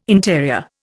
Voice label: "interior", Indian